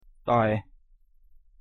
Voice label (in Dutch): "tae", Khmer; thee-in-andere-talen